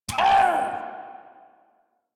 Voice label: Pain Ghoul Saw, en; Grineer Ghoul Sawman